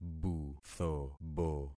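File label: "buthobo", concatenated syllables; Affixing experiment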